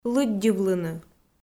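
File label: "лыддьывлыны", Komi, female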